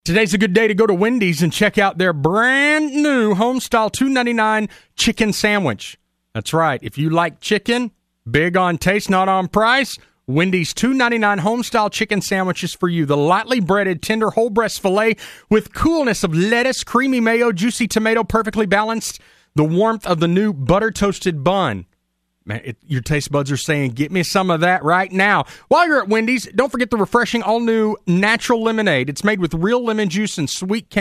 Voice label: adlib commerical; wendy's

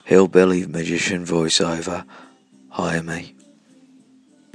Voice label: voice over artist

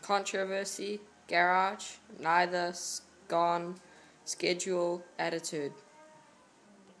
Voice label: Auckland NZ male

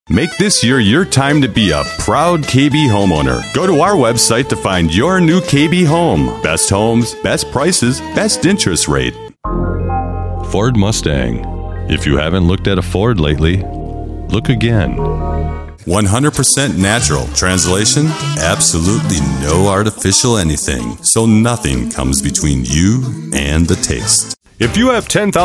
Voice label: commercial voice over

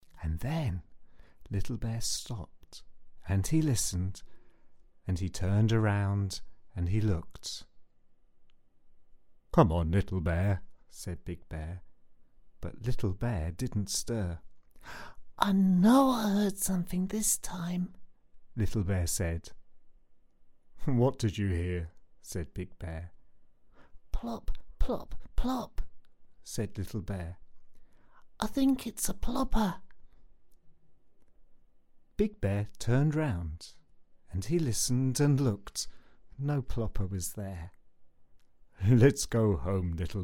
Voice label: children's audiobook

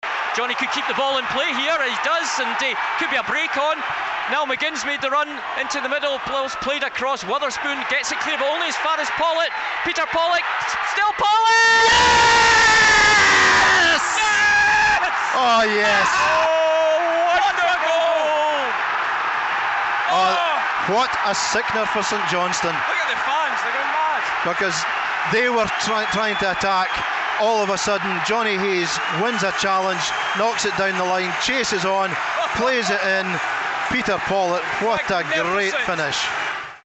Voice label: tv commentary; rdeen 1 0 st johnstone; northsound 1

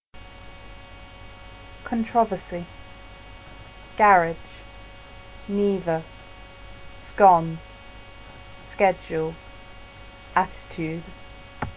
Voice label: brighton england female

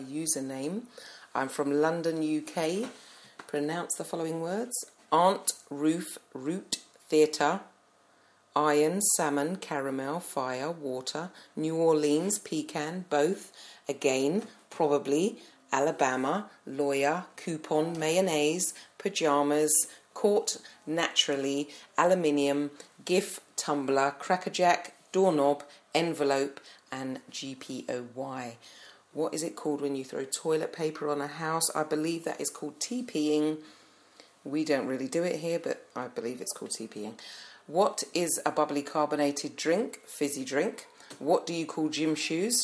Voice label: accent challenge

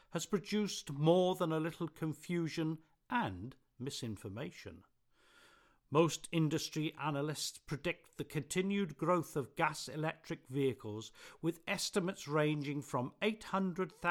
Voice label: hybrid cars advert commercial

